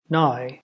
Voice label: "now", ulster; jetpackLearningPlatform; story-arranger